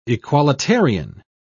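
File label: "equalitarian", British English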